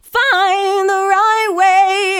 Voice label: FIND THE, FEMALE VOICE; Best Service; Hallelujah